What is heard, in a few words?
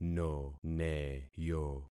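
"noneyo", concatenated syllables; Affixing experiment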